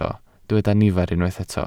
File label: "nifer", Welsh